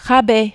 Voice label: "rabais", French